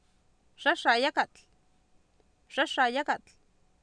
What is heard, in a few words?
"xAxaayAkatl", Oapan, female; Oapan minimal pairs 2000